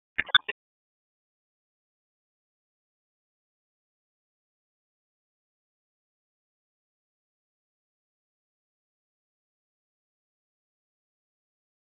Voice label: Police Downtown District Tac 1; Raleigh; wake